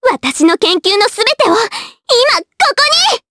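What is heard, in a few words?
Vox Skill Japanese; Annette; kingsraid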